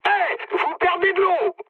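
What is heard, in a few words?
damaged water, mood high, French; War Thunder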